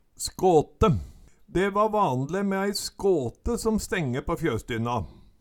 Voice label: "skåte", Numedalsmål

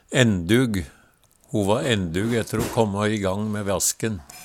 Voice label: "endug", Numedalsmål; Ho va endug etter å kåmmå i gang me vasken

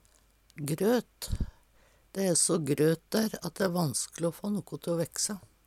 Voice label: grot, Numedalsmål

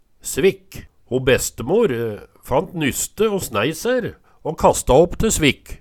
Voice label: svikk, Numedalsmål

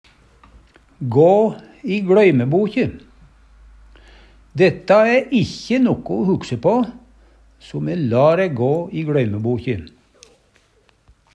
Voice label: "gå i gLøymebokje", Numedalsmål; Uttrykk

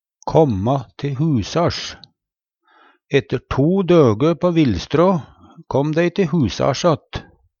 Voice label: kamma te husars, Numedalsmål; finne fram; Uttrykk

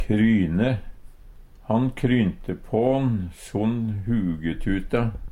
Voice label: kryne, Numedalsmål

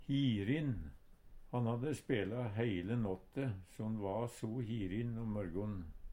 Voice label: "hirin", Numedalsmål; Han hadde spela heile nåtte so'n va so hirin om mørgon.

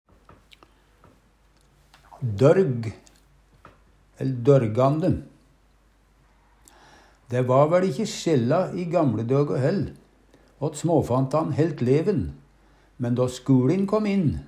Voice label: "dorgande", Numedalsmål

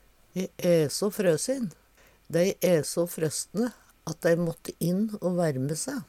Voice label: frosin, Numedalsmål

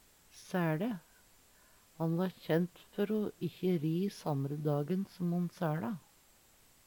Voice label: "sae Le", Numedalsmål

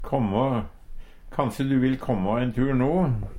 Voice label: kamma, Numedalsmål; kjem; kom; komme; Verb

